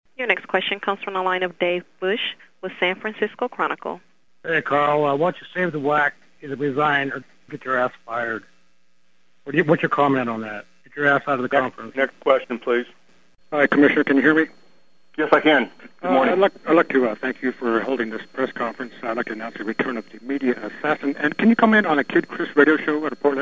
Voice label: crank call; Crank Call to WAC Commissioner; Idaho Sports Talk; parody